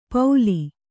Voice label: "ಪೌಲಿ", Kannada

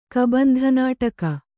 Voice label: "ಕಬಂಧನಾಟಕ", Kannada

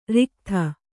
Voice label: "ರಿಕ್ಥ", Kannada; riktha; noun; an inherited, ancestral property.; wealth; riches.

